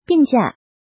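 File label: "Bìngjià", Chinese; Tu vung nghi phep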